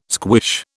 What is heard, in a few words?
"squish", British